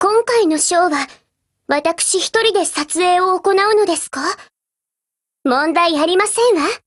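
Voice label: BLACK ACTION Japanese, Voice; GF Alarm; Desert Eagle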